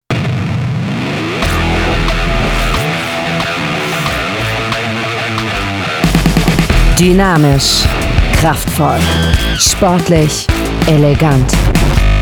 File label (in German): Autowerbung